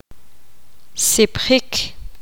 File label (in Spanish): poqomam hincharse